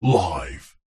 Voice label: multimedia radio production voice male processed deep says live